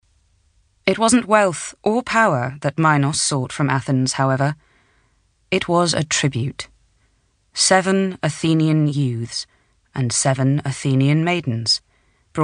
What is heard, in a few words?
Audiobook; Ariadne